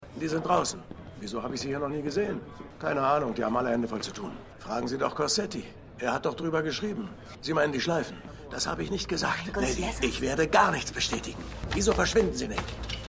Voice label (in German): Aelterer Revierpolizist; Boston Strangler